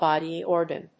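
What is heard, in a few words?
"body organ", English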